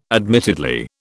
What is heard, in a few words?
"admittedly", British